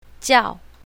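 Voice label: "jiao4", pinyin, south, female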